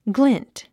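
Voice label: "glint", English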